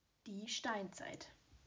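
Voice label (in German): "Steinzeit", German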